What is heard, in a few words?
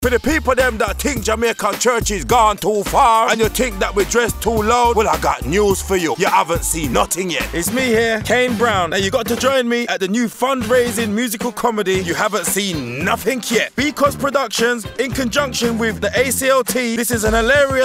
Radio Add